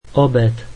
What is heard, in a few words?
slovak lunch